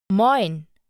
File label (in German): "Moin", German; hello in german